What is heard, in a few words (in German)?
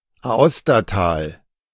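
"Aostatal", German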